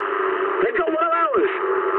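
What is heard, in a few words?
English aircraft destroyed mood med; War Thunder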